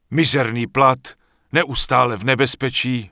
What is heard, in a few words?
Human Male Merchant Start Shopping; wowpreklad; czwow; NPC